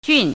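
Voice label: "jùn", Chinese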